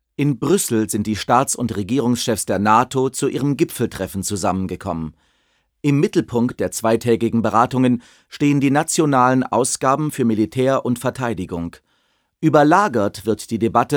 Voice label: Nachrichten

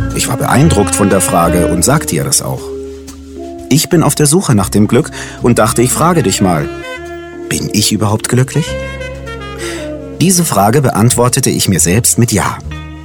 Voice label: Hoerbuch freundlich warm Dialog Mann Frau ruhig; 7 Summits

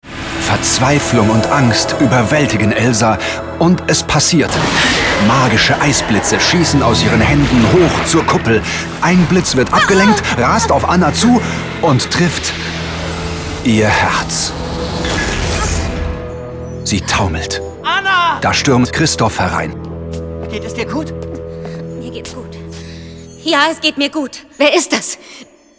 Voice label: hoerspiel; eiskoenigin

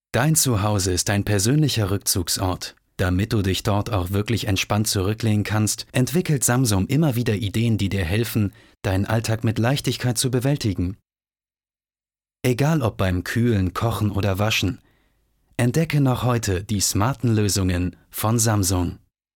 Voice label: Werbung Haushaltssysteme

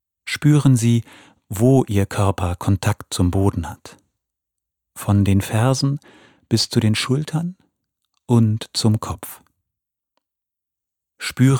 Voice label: Entspannungs Hoerbuch

